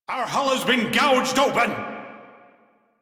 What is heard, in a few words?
Crew See Breach, Male; Dialog; en; Warframe; Railjack Crew; Arbiters Hexis